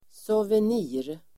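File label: "souvenir", Swedish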